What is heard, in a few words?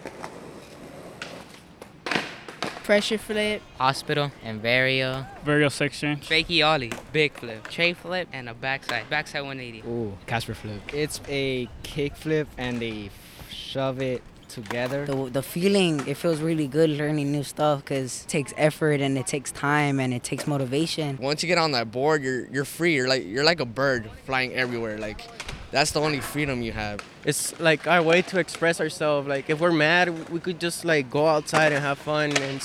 voxpop; summer; vox pop assignment